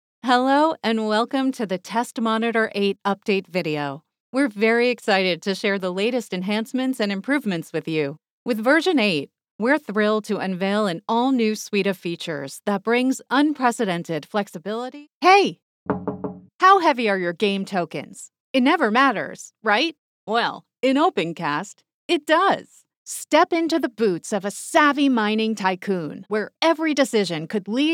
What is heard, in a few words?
Explainers and Tutorials VO